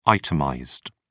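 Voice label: "itemized", British English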